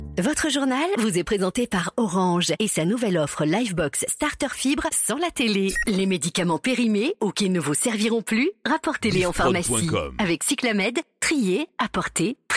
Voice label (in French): VO Generale